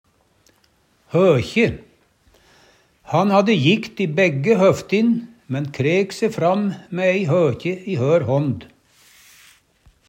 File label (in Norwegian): hokje, Numedalsmål; Han hadde jikt i begge høftin, men krek se fram mæ ei høkje i hør hånd.